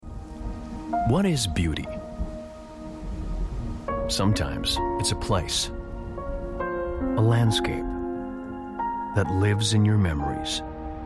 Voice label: english canadian narration